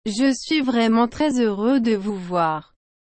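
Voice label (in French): "Je suis vraiment très heureux de vous voir", French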